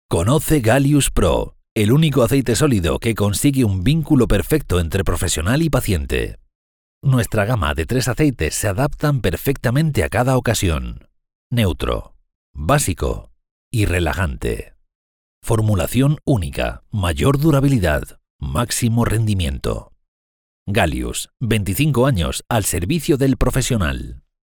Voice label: spot

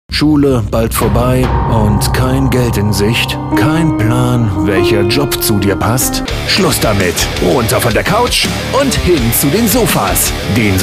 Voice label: Event spot; WMF BKK; German (Germany)

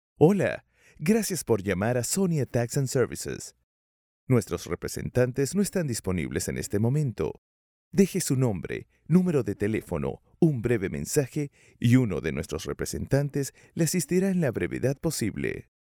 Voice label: IVR Spanish Voiceover

